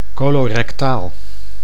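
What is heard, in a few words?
"colorectaal", Dutch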